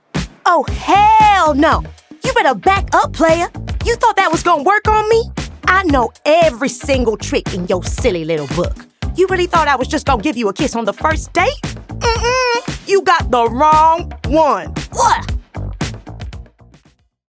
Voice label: animation